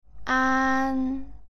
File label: "aan", Cantonese jyutping